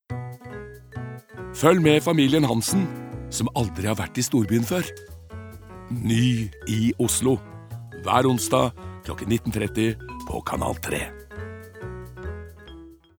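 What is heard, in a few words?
Norwegian promo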